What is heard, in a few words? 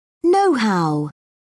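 "nohow", British English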